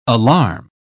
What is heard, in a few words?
"alarm", pronounce